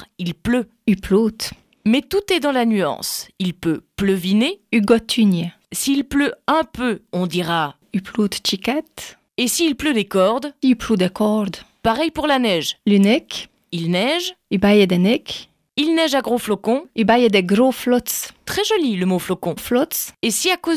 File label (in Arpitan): dire en patois